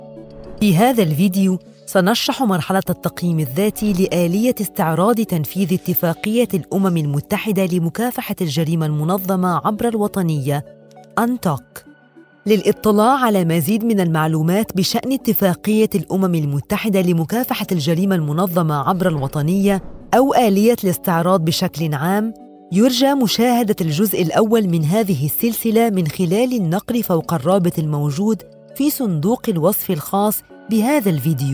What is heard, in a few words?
arabic political ads